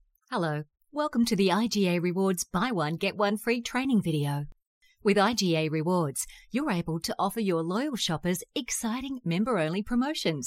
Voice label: Explainer video